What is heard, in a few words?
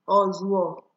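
"ozuo", Nigerian Pidgin, female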